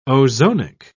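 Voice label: "ozonic", British English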